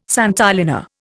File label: "santolina", Indian